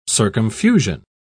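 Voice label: "circumfusion", British English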